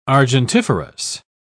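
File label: "argentiferous", British English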